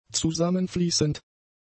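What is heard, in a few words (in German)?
"zusammenfließend", German; adjectives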